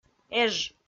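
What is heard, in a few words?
"эж", Komi, female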